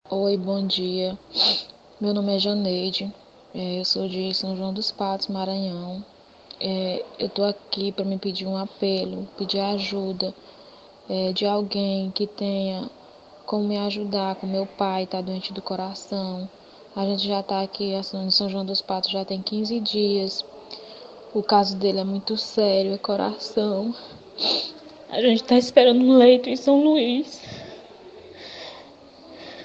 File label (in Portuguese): voz da filha